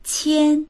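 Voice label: "qian1", Mandarin Chinese; 千; dictionary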